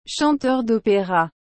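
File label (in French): "chanteur dopera", French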